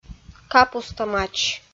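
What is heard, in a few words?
"капуста мач", Komi, female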